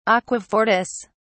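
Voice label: "aquafortis", British English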